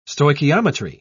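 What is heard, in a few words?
"stoichiometry", English, British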